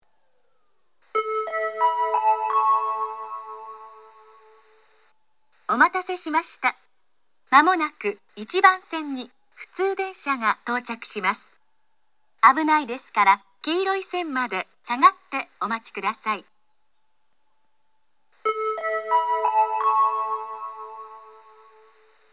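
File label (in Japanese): 接近放送 2ドア7両 伊豆急下田行の接近放送です。; リゾート21号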